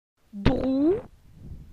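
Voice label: "Drou", French; phonetique; la syllabe ou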